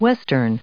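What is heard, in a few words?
"western", English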